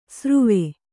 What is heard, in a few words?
"ಸಾರುವೆ", Kannada